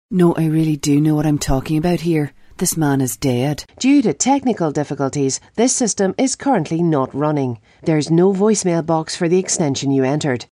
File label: northern irish accent